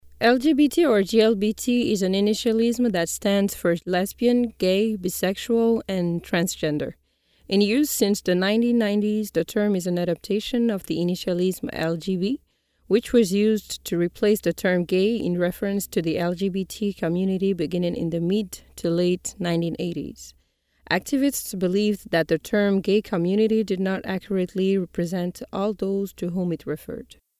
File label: female african voice over narrative, african english